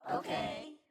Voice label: audience "ok"